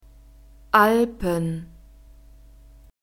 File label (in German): "Alpen", German